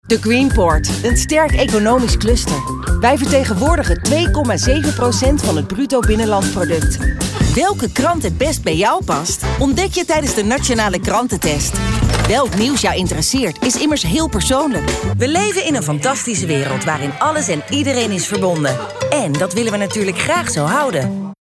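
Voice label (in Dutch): enthousiast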